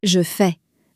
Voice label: "je fais", French